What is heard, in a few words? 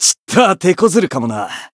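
Voice encounter fear, Japanese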